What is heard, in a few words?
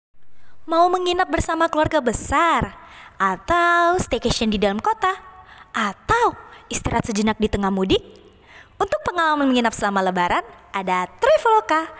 Casual